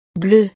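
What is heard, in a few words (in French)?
"bleue", French; azul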